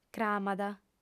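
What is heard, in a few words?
"cramat", female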